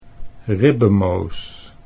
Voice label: "ribbemoos", Dutch

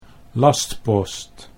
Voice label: "lastpost", Dutch

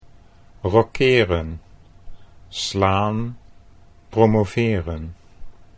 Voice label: rocheren slaan, Dutch; chess

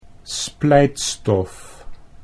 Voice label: "splijtstof", Dutch

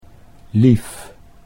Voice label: "lief", Dutch; 'dear'

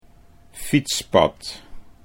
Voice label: "fietspad", Dutch

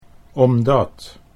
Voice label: "omdat", Dutch

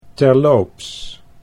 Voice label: "terloops", Dutch

